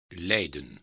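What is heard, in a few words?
"lijden", Dutch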